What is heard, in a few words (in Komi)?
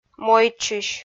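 "мойдчысь", Komi, female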